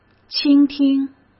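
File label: "倾听", Mandarin Chinese; chinese flashcards; hsk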